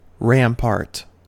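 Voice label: "rampart", US English; pronunciations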